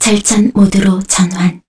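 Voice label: Vox Dead, Korean; Kara; kingsraid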